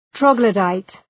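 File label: "troglodyte", English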